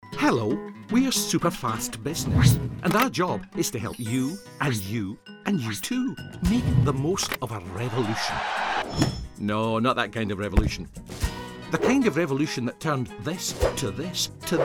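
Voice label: Commercial Scottish, voice reel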